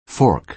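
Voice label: "fork", American English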